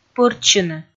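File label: "пӧртсьыны", Komi, female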